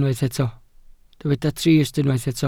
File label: "trist", Welsh